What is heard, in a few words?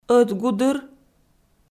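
"ӧтгудыр" Komi, female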